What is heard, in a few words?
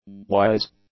"wise", english; adjectives